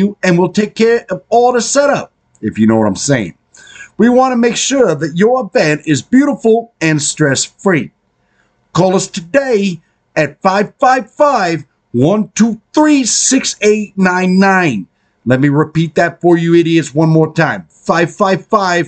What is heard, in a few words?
upside down flowers (mock ad)